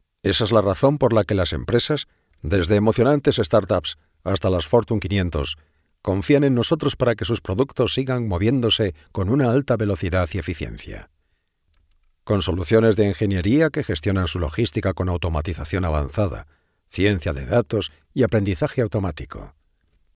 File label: locutor spanish voiceover